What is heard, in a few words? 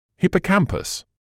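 "hippocampus", British English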